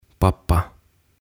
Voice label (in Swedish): "Pappa" Isä